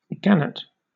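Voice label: "gannet", British English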